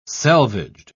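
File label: "selvaged", British English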